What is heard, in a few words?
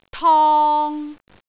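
"tong3", Cantonese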